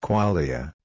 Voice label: "kwalja", Proto Germanic